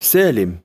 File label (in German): "salem", arabische jungennamen